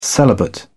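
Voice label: "celibate", British English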